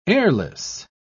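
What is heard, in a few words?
"heirless", British English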